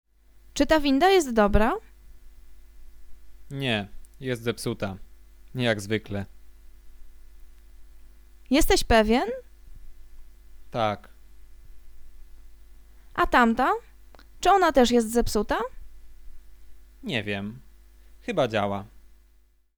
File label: conversation; lektorek; firstyear